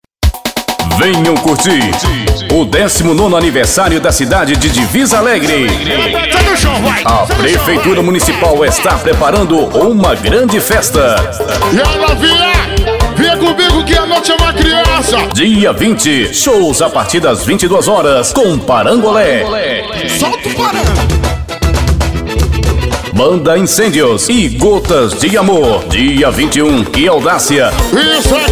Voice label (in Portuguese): spoot aniversario; STUDIO WS; divisa alegre